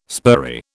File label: "spurry", British